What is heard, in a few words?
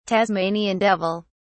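"tasmanian devil", British English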